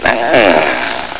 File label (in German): MAN